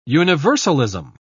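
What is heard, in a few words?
"universalism", English, British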